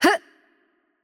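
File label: Italian, attack light; VO; bloom minigame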